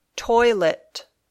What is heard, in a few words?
"toilet", English